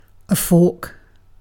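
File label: "fork" British English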